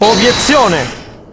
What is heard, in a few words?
"Objection", Italian; Kristoph